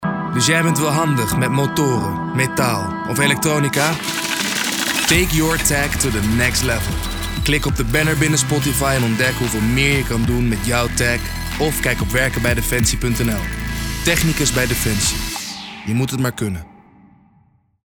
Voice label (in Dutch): stoer, voice-over; defensie